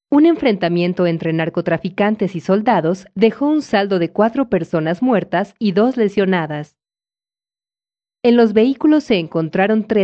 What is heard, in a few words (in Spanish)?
Spanish South America, F